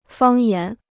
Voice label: "方言", Chinese; fāng yán

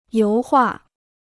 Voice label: "油画", Chinese

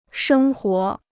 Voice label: "生活", Chinese

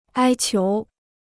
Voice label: "哀求", Chinese

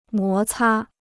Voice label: "摩擦", Chinese

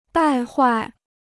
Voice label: "败坏", Chinese

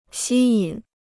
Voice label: "吸引", Chinese

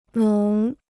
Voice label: "浓", Chinese

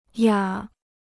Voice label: "哑", Chinese

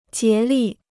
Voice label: "竭力", Chinese

